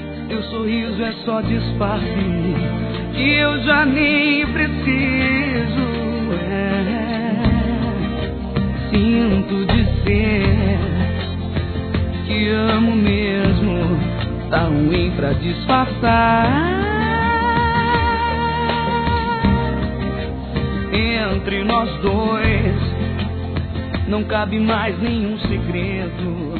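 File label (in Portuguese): Rom romantica seu carinho me faz feliz, feminino; Telemensagens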